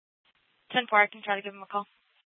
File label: Mesa PD Central Dispatch, scanner